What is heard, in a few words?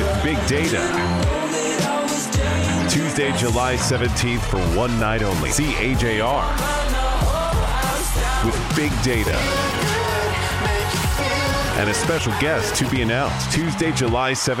Concert Commercial